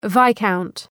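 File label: "viscount", English word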